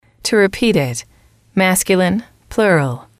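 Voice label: "to repeat it", English, female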